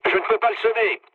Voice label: aircraft damaged mood low, speech fr; War Thunder